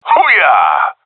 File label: cheer; Counter Strike; CS:GO; seal diver